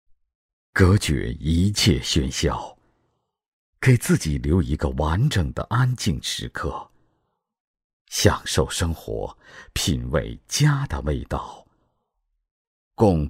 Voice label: 男, 品牌宣传 门业宣传配音; 顶固门业

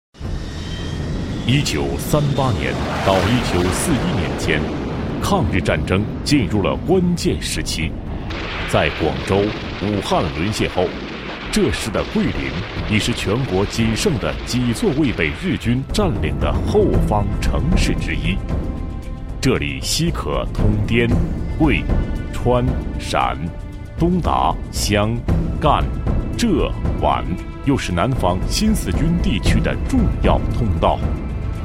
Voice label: 男 专题 历史记录片风格 抗日, 国语男声配音

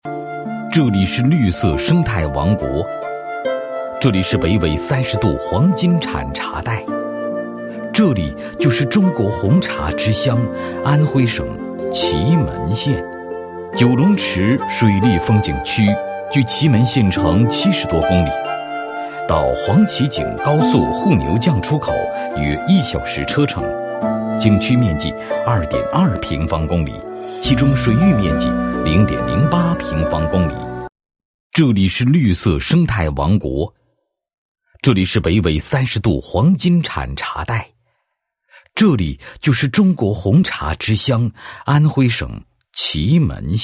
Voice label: 男, 地区; 安徽省祁门县九龙池景区

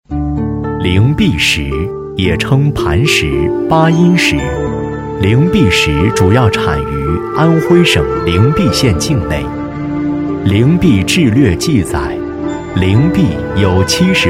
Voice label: 男,纪录片,舒缓; 灵璧石

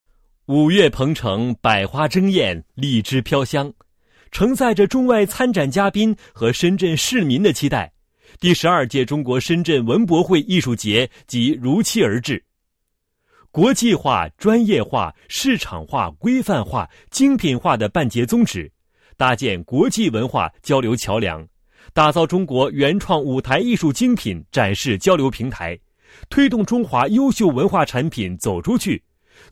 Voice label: 男 解说专题, 配音; 第十二届中国文博会艺术节解说

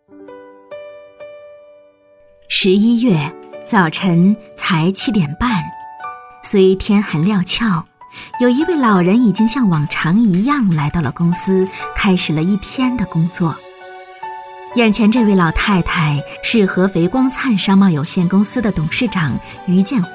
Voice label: 女 纪录片; 国语女声配音; 古井贡酒

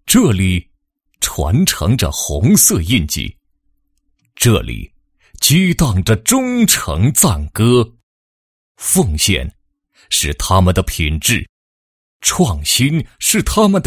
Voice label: 男 党建专题; 先锋耀德城 协同促发展

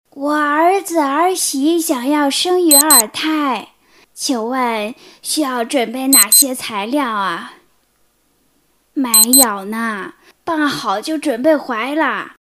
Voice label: 女 角色模仿 老人

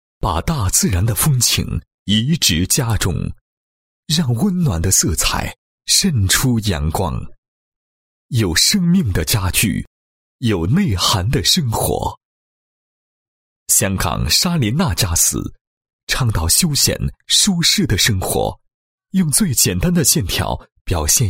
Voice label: 男 企业 宣传简介; 香港莎琳娜傢俬

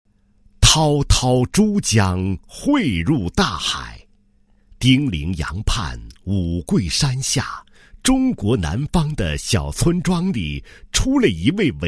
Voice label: 男-旅游-旅游区5A级申报, 配音案例; 孙中山故里

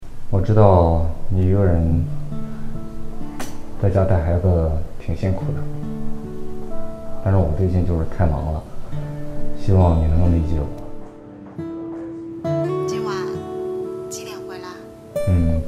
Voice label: 女; 富锦月饼-幸福分享-亲情篇

